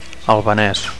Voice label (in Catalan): "albanes", Catalan; Albanian